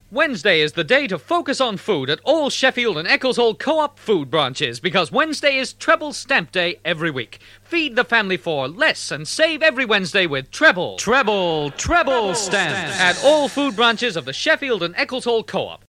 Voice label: Radio Advert; Radio Hallam; S & E Coop Treble Stamps